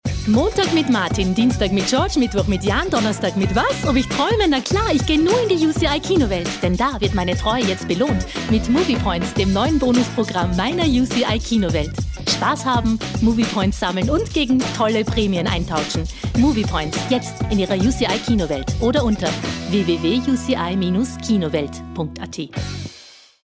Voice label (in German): Werbung Kino; UCI; Sprecherdatenbank